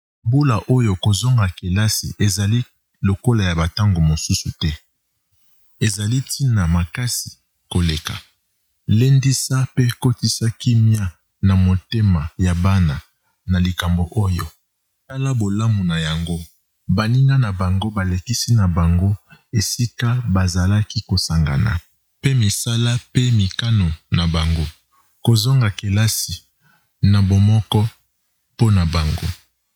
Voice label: Message Lingala